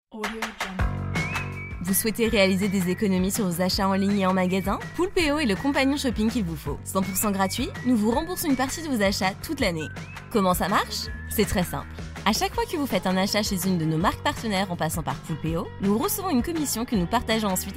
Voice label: fr explainer reel; le cashback poulpeo